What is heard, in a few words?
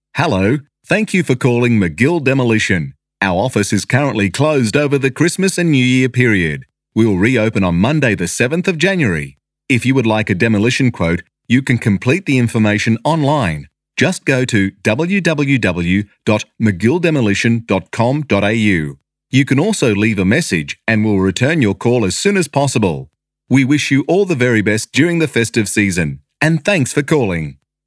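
Australian PHONE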